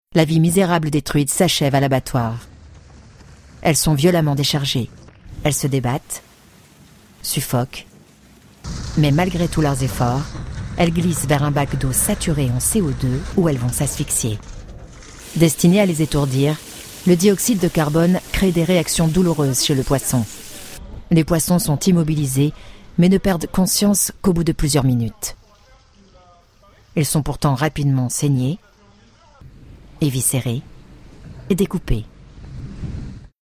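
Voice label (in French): Basse dramatique, voix off féminine; L214 Truites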